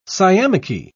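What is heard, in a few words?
"sciamachy", British English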